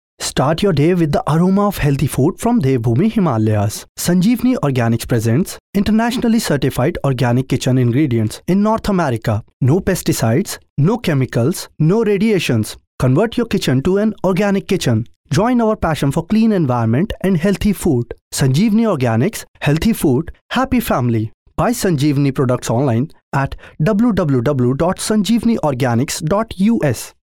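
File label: english sample, male voice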